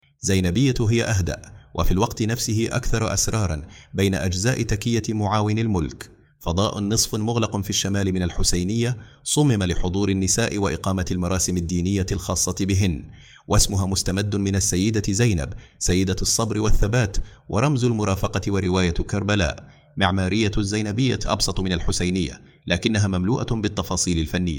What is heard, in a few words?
zeynabiyeh arabic; zeynabiyeh moaven al molk